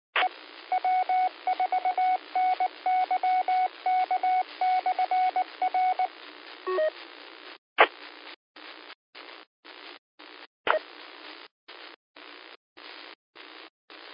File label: qso rec simplex logic